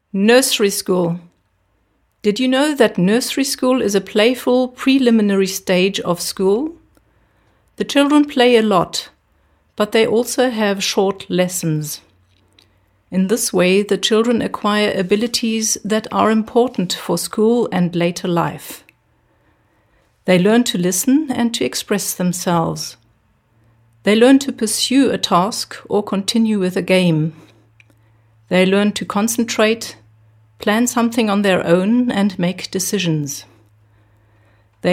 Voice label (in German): engl